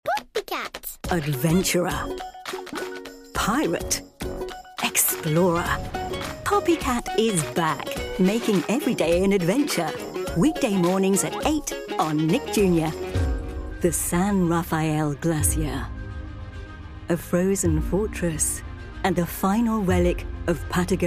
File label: english british promos; dubladora profissional britânica